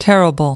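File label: "terrible", English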